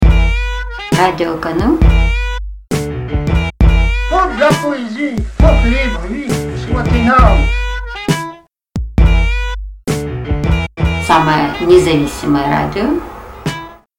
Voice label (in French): jingle Faut d la poésie femme amplifié